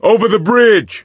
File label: misc directional, coach, survivor voice